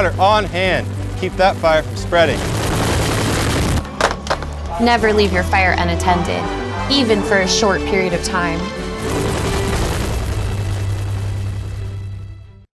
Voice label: Radio PSA Debris Burning